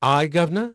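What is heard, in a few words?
English male; Pirates Of The Caribbean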